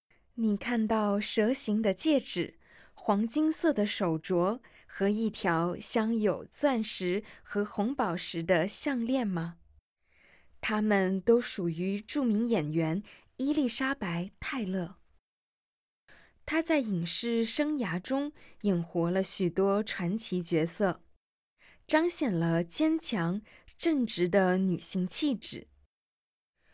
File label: Mandarin, audio guide